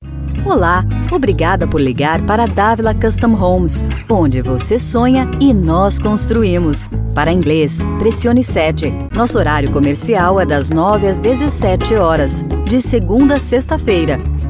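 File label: IVR, Brazilian Portuguese; voice demos; GoLocalise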